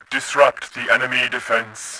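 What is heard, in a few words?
attack distract, voice, Bot; Tribes2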